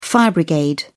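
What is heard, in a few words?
"fire brigade", British English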